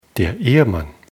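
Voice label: "ehemann", German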